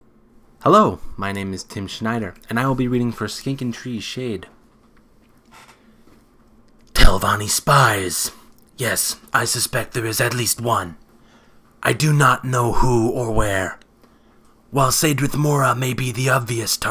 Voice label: argonian voice